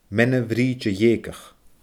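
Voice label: "meanbh-fhriide-gheugach", Scottish Gaelic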